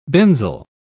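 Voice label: "benzyl", English, British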